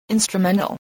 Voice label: "instrumental", English